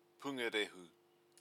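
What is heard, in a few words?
"pungarehu", Māori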